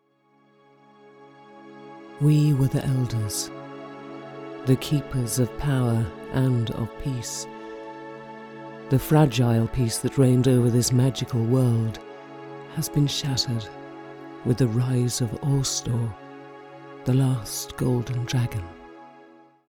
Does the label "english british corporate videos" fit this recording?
No